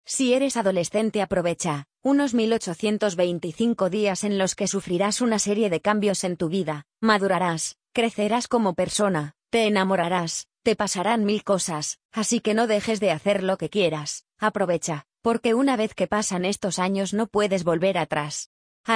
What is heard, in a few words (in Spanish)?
voz off